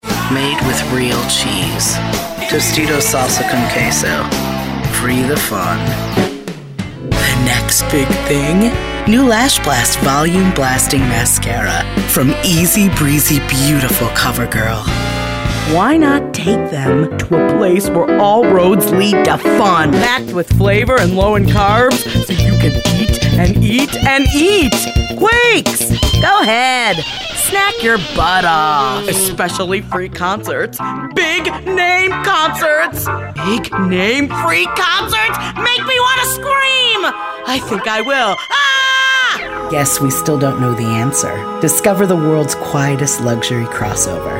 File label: Commercials